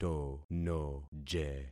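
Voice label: "tonoje", concatenated syllables; Affixing experiment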